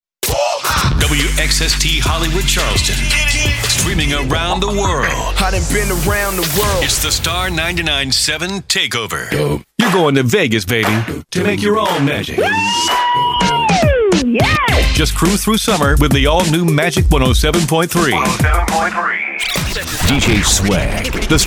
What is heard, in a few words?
radio men